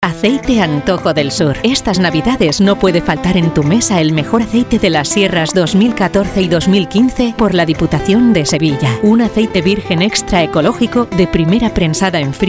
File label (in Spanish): comercial